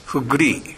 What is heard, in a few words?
"fuggure", minamiyamagata